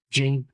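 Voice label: Voice Acting es M, Spanish, male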